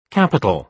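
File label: "capital", British English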